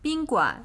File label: "Bin'guan", Chinese